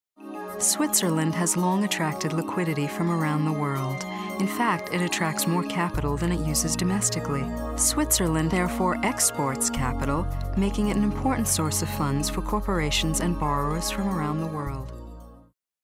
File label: Corporate